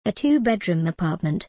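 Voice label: British English, a two bedroom apartment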